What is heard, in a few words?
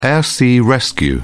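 "air sea rescue", British English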